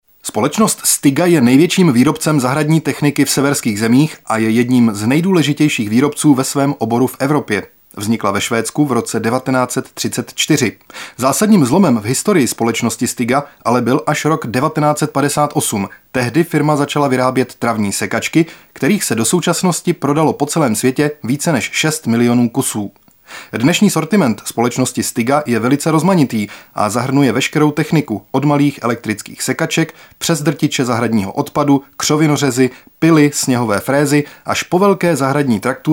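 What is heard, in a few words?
male Czech documentary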